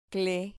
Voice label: "cle", Spanish syllable